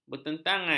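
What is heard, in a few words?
"bertentangan", Malay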